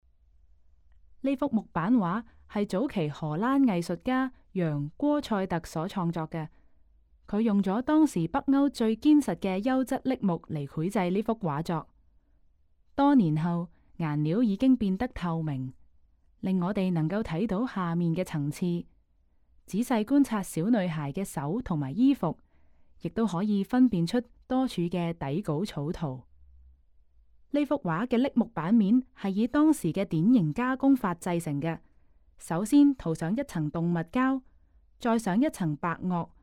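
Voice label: Cantonese, audio guide; 年輕公主（丹麥的桃樂絲雅？）; 揚．戈塞特（活躍於1508年，卒於1532年）; 約1530–1532年; 橡木版油畫，38.2 x 29.1厘米; 英國國家美術館; 香港故宮文化博物館; 從波提切利到梵高：英國國家美術館珍藏展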